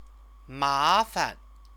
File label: "mafan", Chinese; 麻 烦; troublesome; stative verb; Chinese lessons